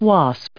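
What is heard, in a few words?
"wasp", English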